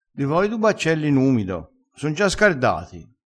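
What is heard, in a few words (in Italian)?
"en", vocabolario dialettale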